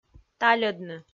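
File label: "тальӧдны", Komi, female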